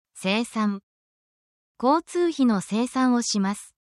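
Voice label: Japanese word, essential Japanese phrases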